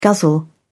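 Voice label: "guzzle", British English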